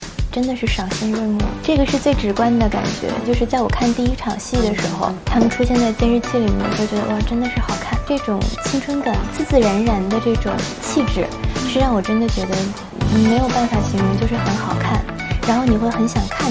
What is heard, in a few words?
interview